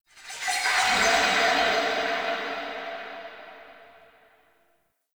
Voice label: Unnatural Speech; Warframe; Shadowgrapher